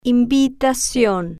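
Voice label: "invitacion" Spanish, female, slow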